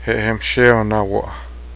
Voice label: appaloosa, cheyenne